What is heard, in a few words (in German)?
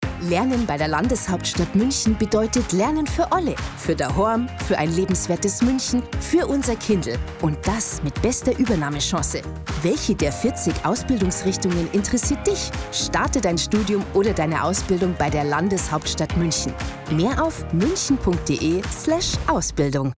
dialekt spot München; lhst munchen